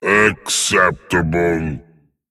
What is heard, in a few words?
Male Dragon Evil Emote Well Played; Evil Fight